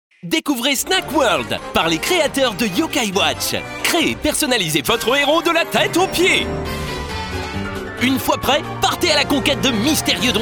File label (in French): spot tv, demos voix; nintendo snacworlkd mordus de dongons gold